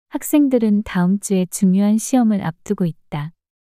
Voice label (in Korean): 문장 여자; 학생들은 다음 주에 중요한 시험을 앞두고 있다